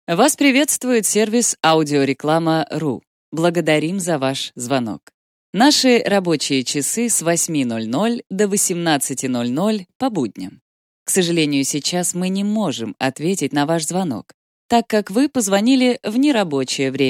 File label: IVR; Вас приветствует магазин «Название магазина»! Ваш звонок очень важен для нас, оставайтес